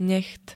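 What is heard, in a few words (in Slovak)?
"necht", Slovak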